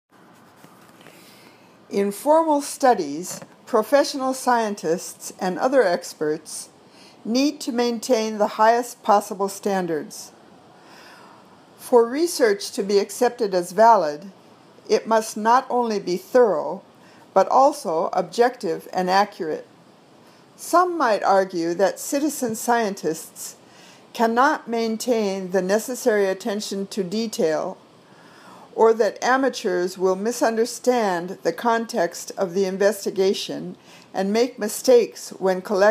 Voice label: Reading Drill